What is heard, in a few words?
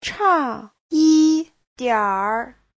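"chà yìdiǎnr", Mandarin Chinese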